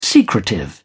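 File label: "secretive", British English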